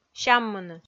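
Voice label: "сяммыны" Komi, female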